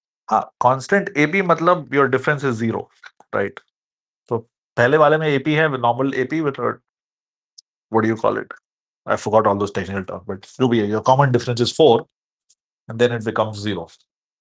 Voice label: voice message; Time and Work (Math)